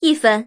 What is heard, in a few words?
woman score order; women